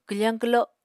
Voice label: "kwfañ kwlo", Apatani